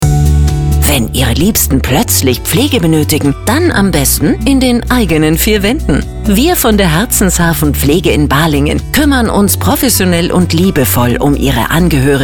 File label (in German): Beispielspot Pflegedienst